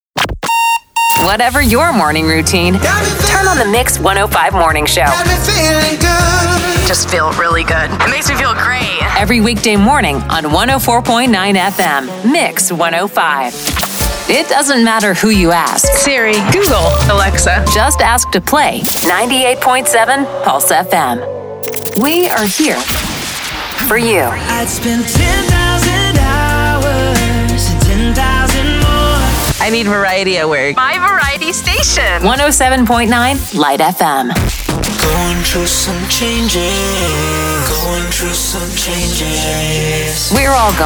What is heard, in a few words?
imaging